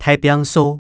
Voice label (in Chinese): 大拚掃, 客語